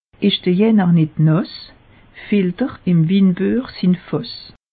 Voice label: "janner", alsace; meteo

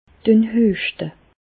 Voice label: hueschte, Bas Rhin; medecine; toussez

